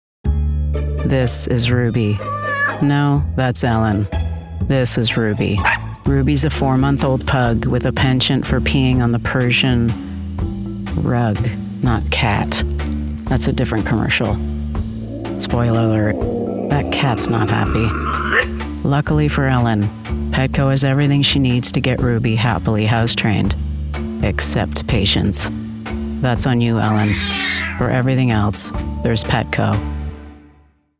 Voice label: female voice over dry humor; PETCO